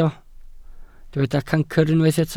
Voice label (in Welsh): "cancr", Welsh